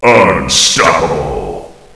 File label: combo, announcer; hl1mp; XDM